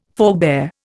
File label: "forebear", Indian